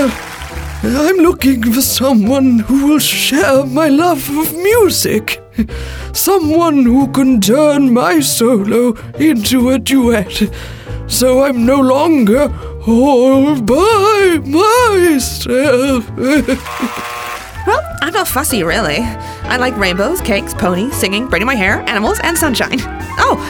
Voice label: Character

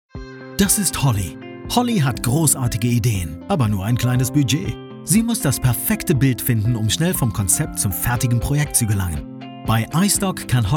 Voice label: German Corporate

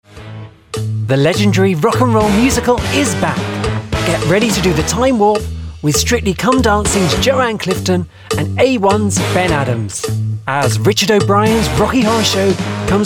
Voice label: commercial reel

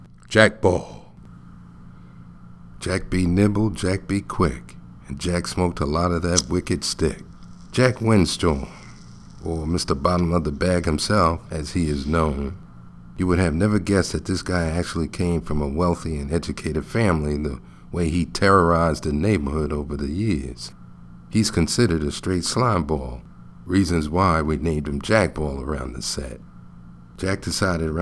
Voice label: audiobook

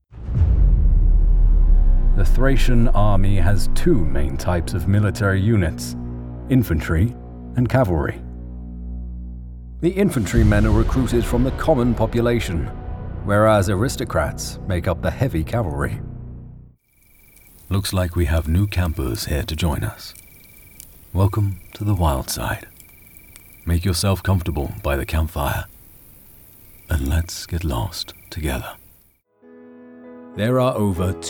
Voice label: Narration

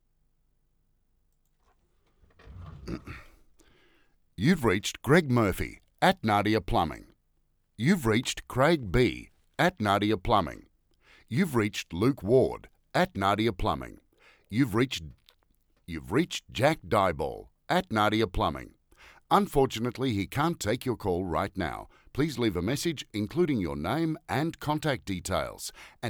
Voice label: Voice Studio Sample

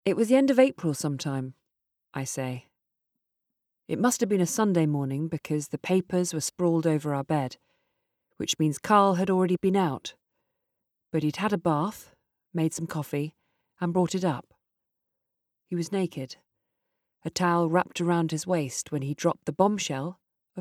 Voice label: audiobook; Too Close

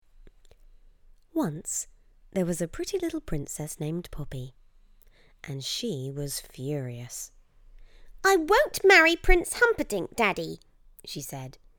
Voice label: NARRATIVE; The Frog Prince

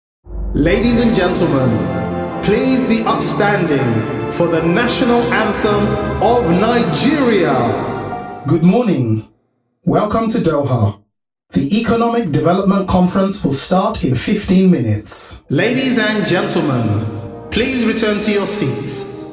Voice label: Voice of God

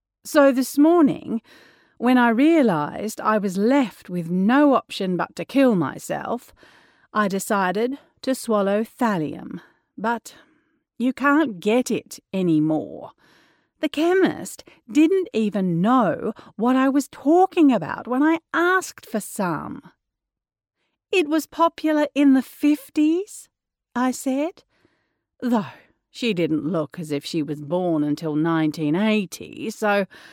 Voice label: Australian Audiobooks

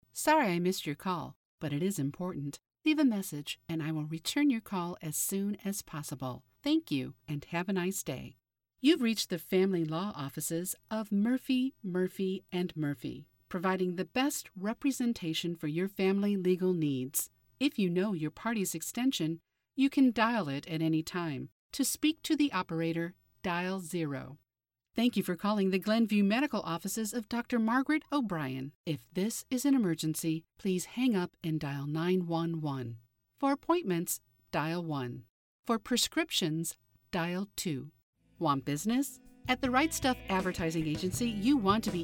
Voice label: Telephony; Voices4U

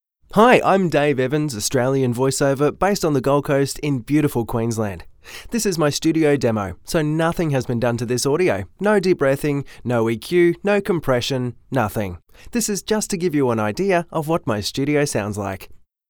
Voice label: Australian Voice Studio

